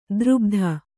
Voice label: "ದುರ್ಬುಧ", kannada

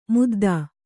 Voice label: "ಮುದ್ದ", kannada

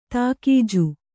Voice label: "ತಾಕೀಜು", Kannada

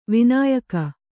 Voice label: "ವೈನಾಯಕ", Kannada

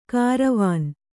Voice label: "ಕಾರವಾನ", Kannada

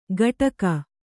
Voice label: "ಗಟಕ", Kannada

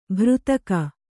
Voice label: "ಭೃತಕ", Kannada

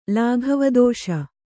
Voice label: "ಲಾಘವದೋಷ", Kannada

